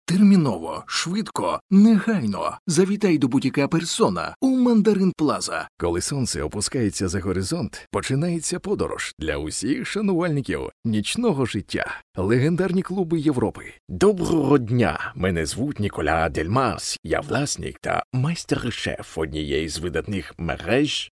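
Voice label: ukrainian voiceover locutor ucraniano, Ukrainian male voice talent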